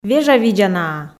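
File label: "вежавидзанаа", Komi, female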